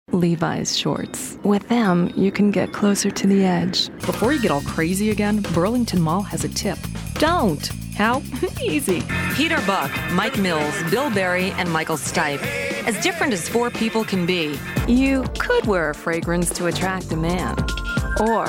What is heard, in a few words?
commercials